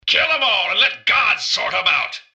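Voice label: robot normal to combat; mrgutsy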